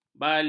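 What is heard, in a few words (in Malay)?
"balun", Malay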